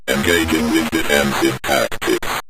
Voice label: engaging With Defensive Tactics, Synth Voice; Kenney's Sound Pack